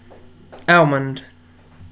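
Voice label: "almond", British English, male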